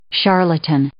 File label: "charlatan", English